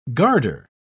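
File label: "guarder", British English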